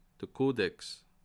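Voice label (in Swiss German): "kodex", Bas Rhin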